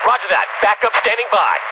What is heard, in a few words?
Dispatch